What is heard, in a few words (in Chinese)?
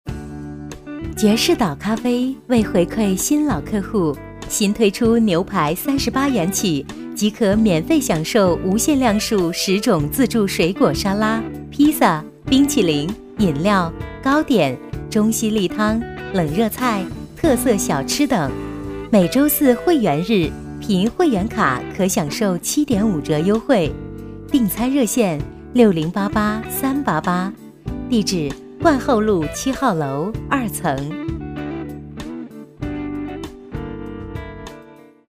女 促销, 国语女声配音; 爵士岛咖啡